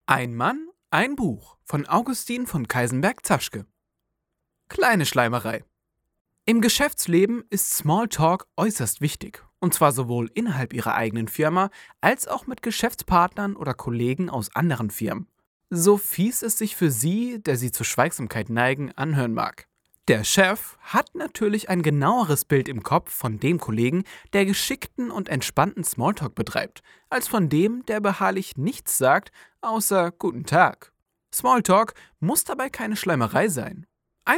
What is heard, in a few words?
Narration German; Ein Mann ein Buch